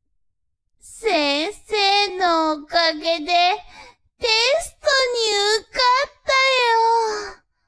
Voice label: woman